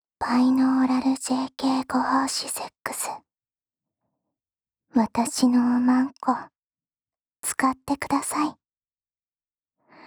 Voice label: バイノーラル; JKご奉仕SEX

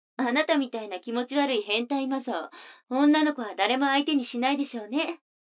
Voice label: 女の子 台詞, ASMR

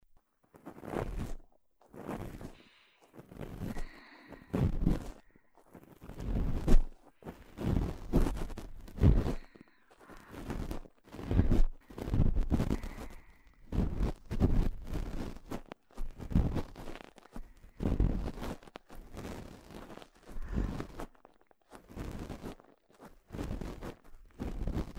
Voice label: 耳かき（右耳・左耳）

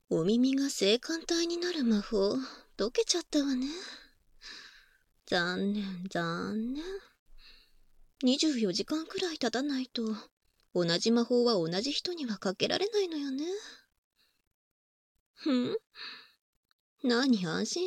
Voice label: オマケ 囁き; 尻尾オナホ; バイノーラルマイク収録 効果音なし

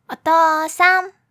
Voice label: お父さん喜

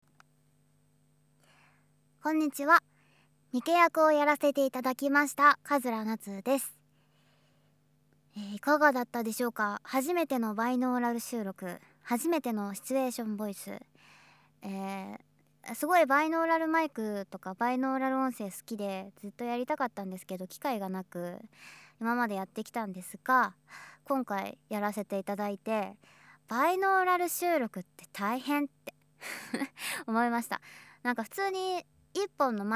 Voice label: 声優コメントボイス

